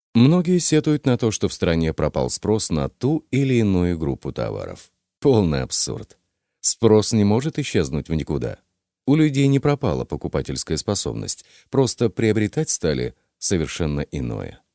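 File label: story presentation Russian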